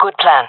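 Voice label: agree, jungle female; vo; agents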